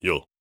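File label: "yes", voice; Aragami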